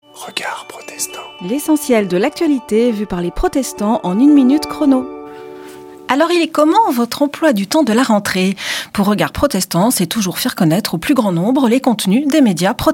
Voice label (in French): Spot radio; Regards protestants